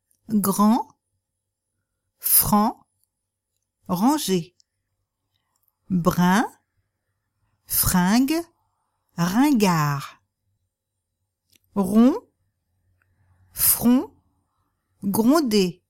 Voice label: french r nasal sounds exercise